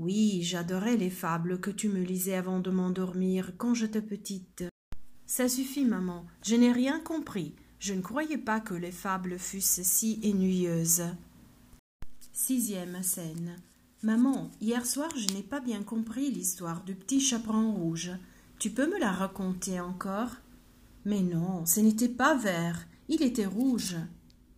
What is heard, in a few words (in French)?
enfant